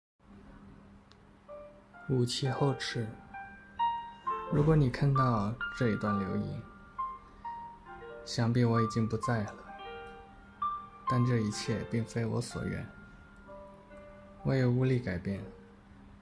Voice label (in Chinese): 哀